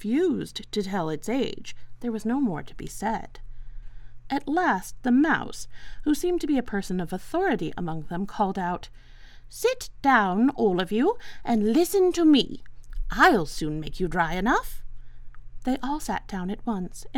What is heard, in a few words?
Narration Alice in Wonderland